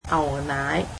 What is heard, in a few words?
"milk", Cantonese